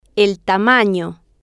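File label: "tamano", Spanish, female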